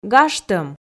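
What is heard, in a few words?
"гажтӧм", Komi, female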